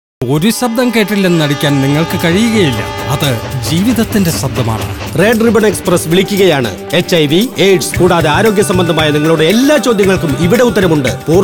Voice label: Radio Malayalam